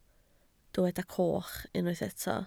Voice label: "coch", Welsh